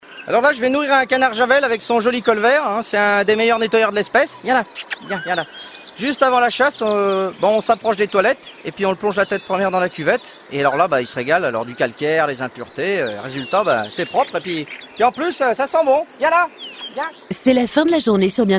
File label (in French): Medley Comédie